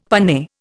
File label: "panne", Indian; Dictionary